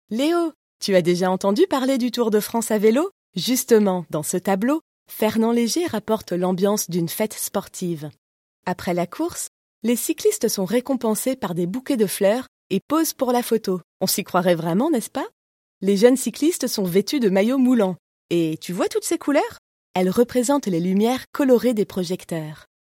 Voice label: Audioguide Enfants

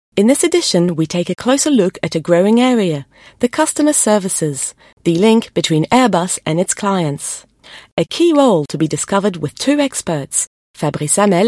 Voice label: Radio d'entreprise Voice track

